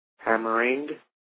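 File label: "HAMMERING", English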